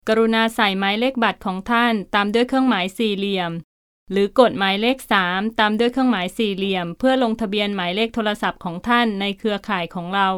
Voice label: Thai; קריינות